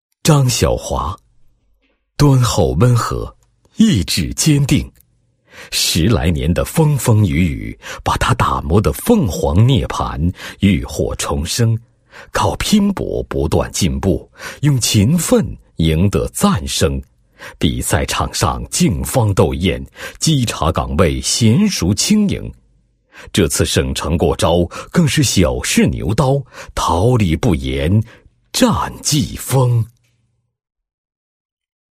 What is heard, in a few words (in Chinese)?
男 颁奖 地税局 五朵金花, 配音案例